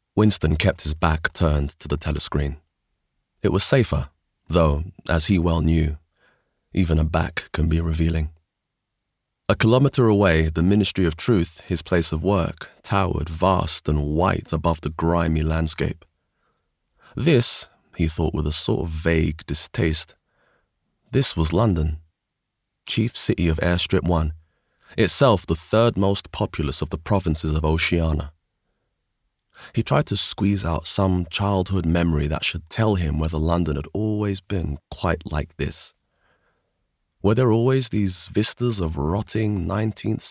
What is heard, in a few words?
Audiobook